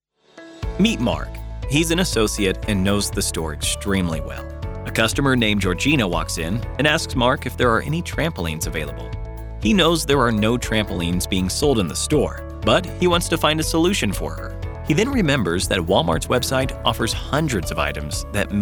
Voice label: Narration, Male Audiobook Narrators